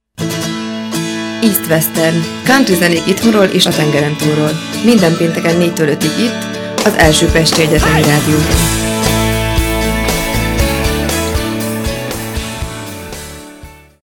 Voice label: country spot